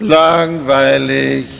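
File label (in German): "langweilig", German; cstrike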